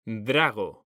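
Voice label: "drago", Spanish, male; mekanta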